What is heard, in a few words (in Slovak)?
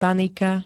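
"panika", Slovak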